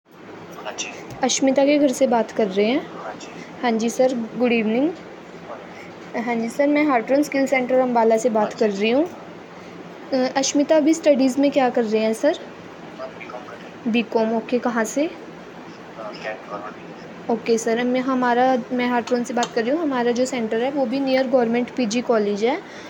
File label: call recording